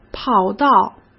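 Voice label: "跑道", Mandarin Chinese; hsk; chinese flashcards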